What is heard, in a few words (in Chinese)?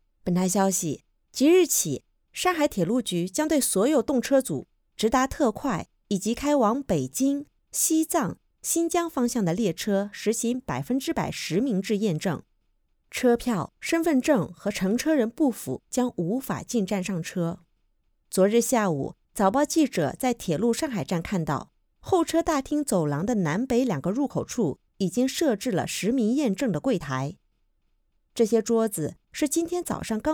新闻片段